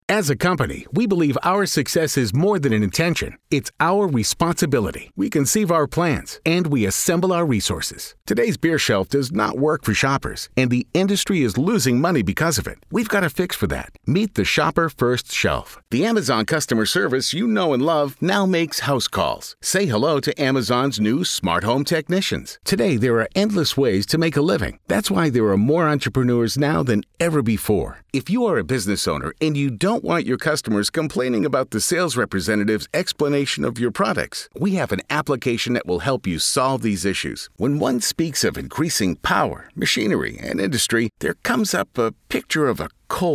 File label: Uptempo